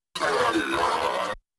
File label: vocal effect samples